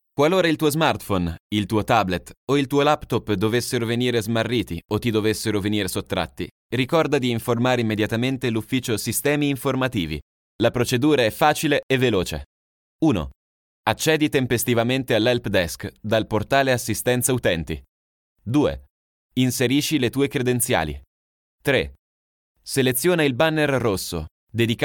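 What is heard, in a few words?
Italian, male, eLearning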